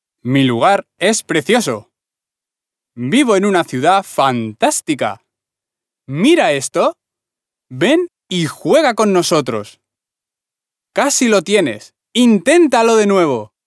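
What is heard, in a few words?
Spanish male children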